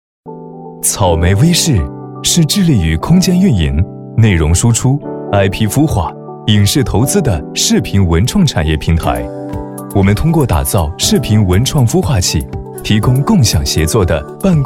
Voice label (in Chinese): 男 专题 商务 亲切; 草莓V视